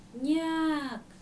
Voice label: "nyääk", Dinka